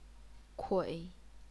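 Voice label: "kui", Chinese, third tone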